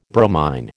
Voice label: "bromine", American